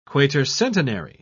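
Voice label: "quatercentenary", British English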